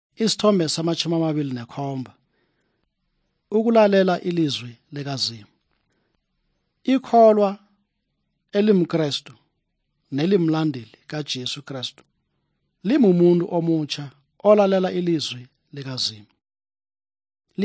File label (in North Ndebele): good news ndebele southern, drama